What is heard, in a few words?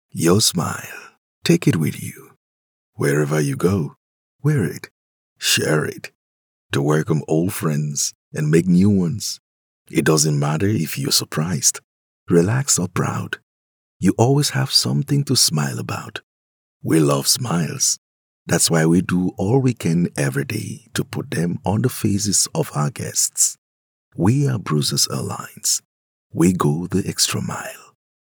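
English African male Commercial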